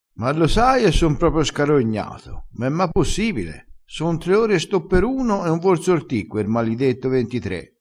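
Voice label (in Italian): "scarognato", dialettale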